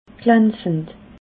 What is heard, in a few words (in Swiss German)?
"glanzend", alsace